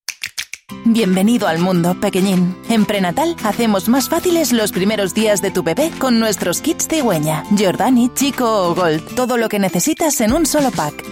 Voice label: locucio ES; PRENATAL; ofertas; genericos